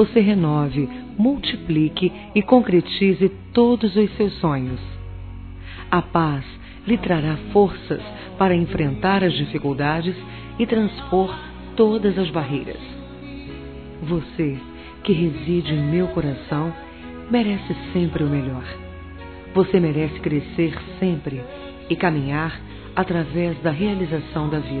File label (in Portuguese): NEUTRA F, Páscoa, fem; Telemensagens